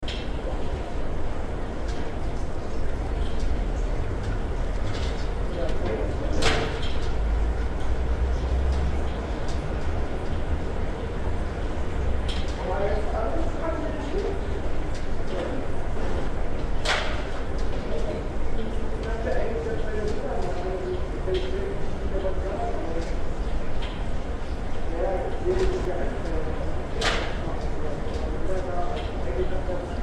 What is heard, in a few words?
courtyard with faint german voices